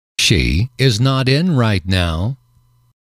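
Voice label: she is not in right now, English, male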